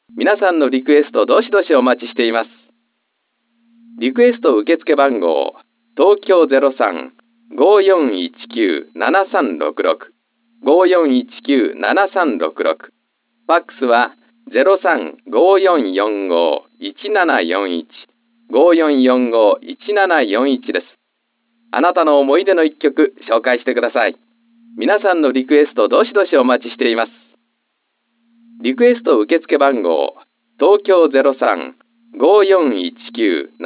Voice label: male radio noise bpf; howling canceller simulation; 適応ハウリング・キャンセラのシミュレーション・プログラム